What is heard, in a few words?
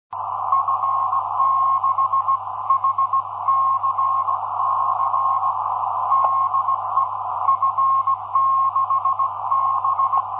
qso; Chaumont (52); Lorient (56)